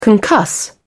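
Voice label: "concuss", British English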